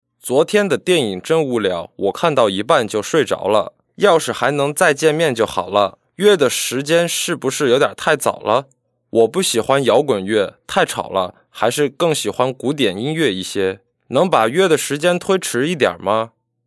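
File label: chinese mandarin male narration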